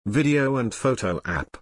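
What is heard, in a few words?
"video and photo app", English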